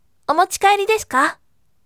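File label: voice, bright; omochikaeri desuka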